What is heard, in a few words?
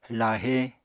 "lahe", Apatani